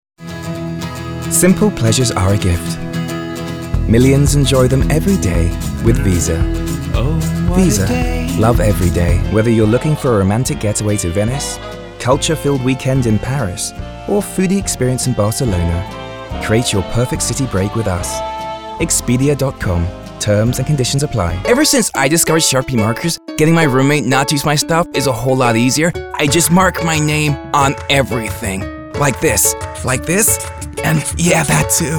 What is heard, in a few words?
Commercial